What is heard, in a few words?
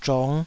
"zhong5", taiwanese